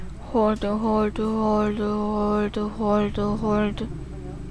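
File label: "hold", spoken word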